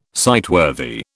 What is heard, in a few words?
"sightworthy", British